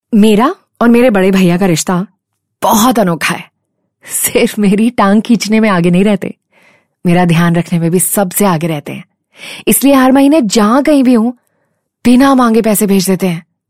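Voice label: promo, Hindi; paytm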